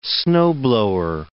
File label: "snowblower", English